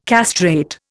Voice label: "castrate", Indian

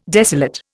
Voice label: "desolate", Indian

